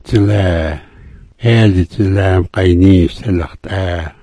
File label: "dzulaa", smalgyax